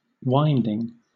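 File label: "winding", British English; pronunciations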